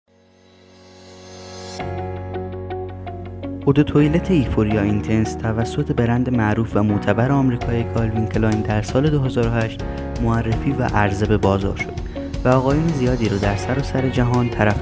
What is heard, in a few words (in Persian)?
VOICE PERSIAN; CK EUPHORIA INTENSE MEN